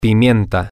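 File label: pepper, Spanish, male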